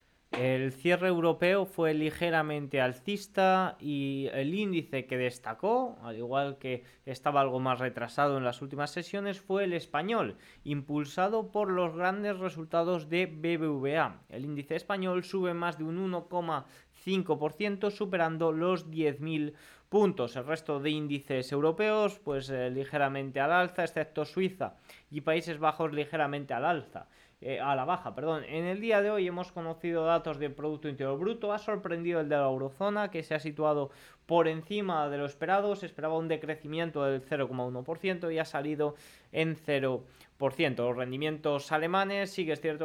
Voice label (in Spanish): radio; mercado plano a la espera de los resultados de hoy al cierre